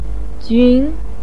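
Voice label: "jun" second tone, Mandarin Chinese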